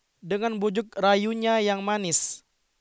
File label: news